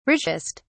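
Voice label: "richest", British English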